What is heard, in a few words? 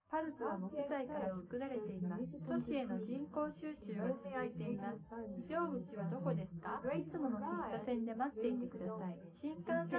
female, live rec, 250ms, 5cm